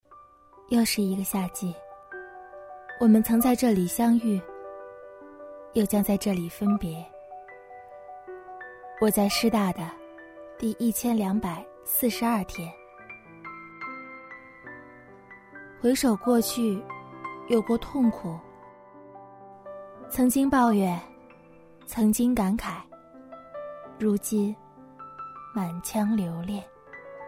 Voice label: 独白微电影 朴素大学生; 杭州师大

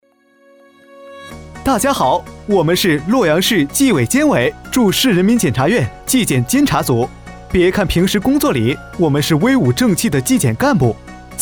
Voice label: 专题 年轻活力

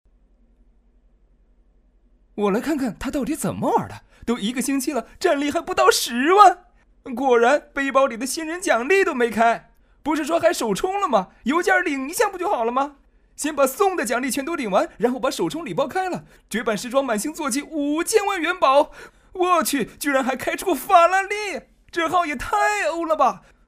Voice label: 游戏广告; 动画动漫; 游戏CG

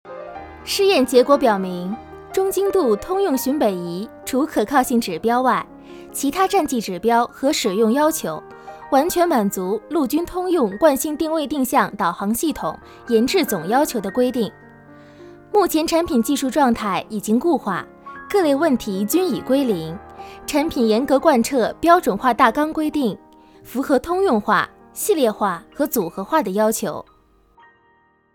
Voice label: 专题 亲切清晰; 中精度通用寻本仪

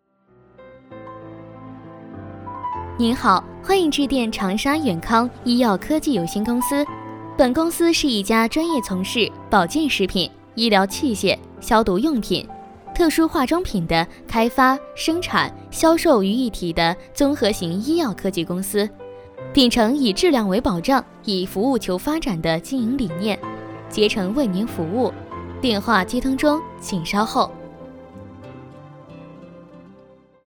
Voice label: 彩铃-医药; 广告 > 电话彩铃

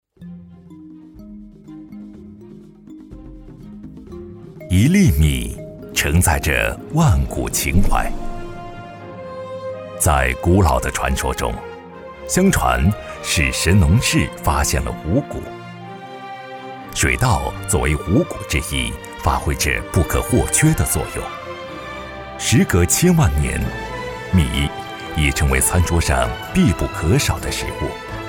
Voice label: 专题 舌尖风格

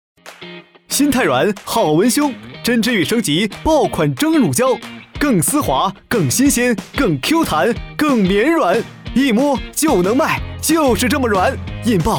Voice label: 广告 年轻活力

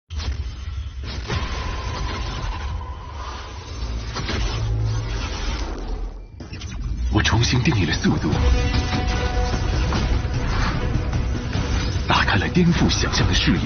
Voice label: 广告 科技产品类; RealmeQ四摄; 广告 > 手机通讯; 迅猛龙

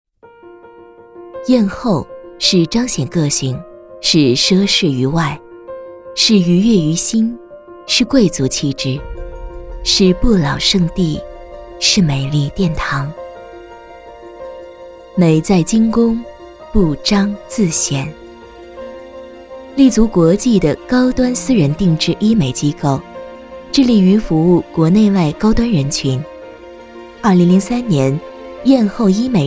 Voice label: 品牌宣传片-神秘庄重-甜度; 艳后品牌宣传片

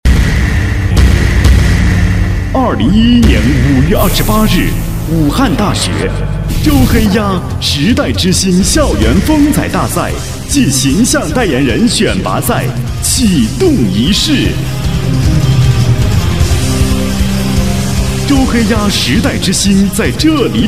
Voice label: 广告 晚会开幕

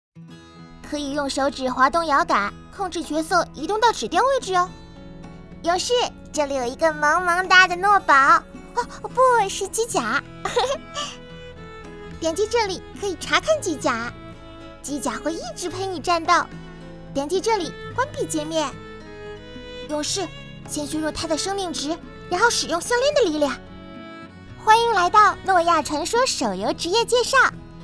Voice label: 女童 游戏解说 活泼可爱

